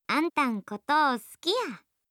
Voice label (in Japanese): 好きです 和歌山; 律子